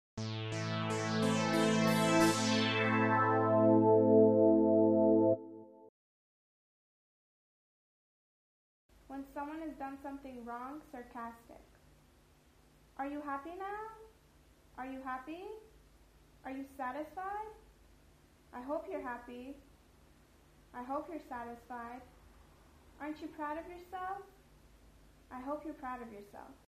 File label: when someone has done something wrong sarcastic